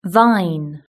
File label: "vine", british english